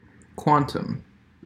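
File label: "quantum", US English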